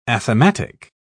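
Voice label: "athematic", English, uk British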